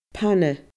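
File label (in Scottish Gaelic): "pana", Scottish Gaelic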